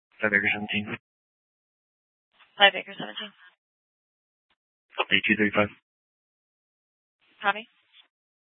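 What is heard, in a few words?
police dispatch, scanner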